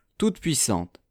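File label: "toutes-puissantes", French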